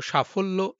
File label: Male